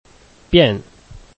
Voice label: "bian4", Chinese